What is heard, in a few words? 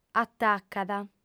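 "atacat", female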